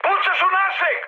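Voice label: Serbian player friendly fire mood high; War Thunder; speech srb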